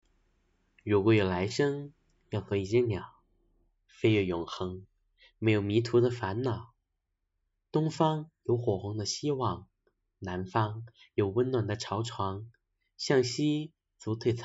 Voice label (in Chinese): 情感类